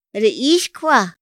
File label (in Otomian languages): ra ixjua, Otomí; Días de la semana; INPI; INALI